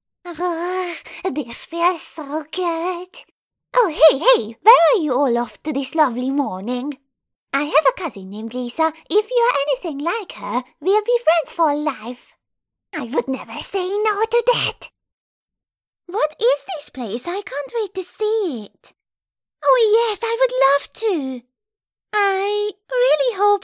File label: English